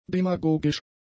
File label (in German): "demagogisch", german; adjectives